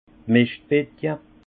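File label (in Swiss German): "mescht bettje", Haut Rhin; hotte à fumier; vin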